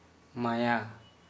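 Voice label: "kind", Kera Mundari, male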